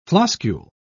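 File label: "floscule", British English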